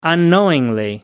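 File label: "unknowingly", English, British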